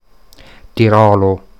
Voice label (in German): Tirolo Standard